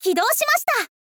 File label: "kidou shimashita", info girl voice